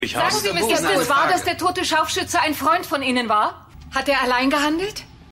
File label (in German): Reporterin Reporter; Mission Erde